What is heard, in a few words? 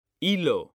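"hilo", Spanish, male; mekanta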